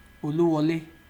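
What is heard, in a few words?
"oluwole", Nigerian Pidgin, male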